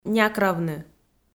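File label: "някравны", Komi, female; kpv audio dict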